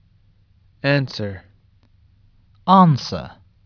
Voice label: "ANSWER", USUK Pronunciation